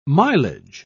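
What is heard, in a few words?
"milage", British English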